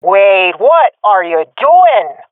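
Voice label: taking fire friendly, swat female; Counter Strike Model Pack; CS:GO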